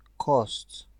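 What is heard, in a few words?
"cost", Nigerian Pidgin, male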